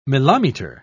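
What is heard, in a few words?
"mileometer", British English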